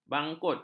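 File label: "bangkut", Malay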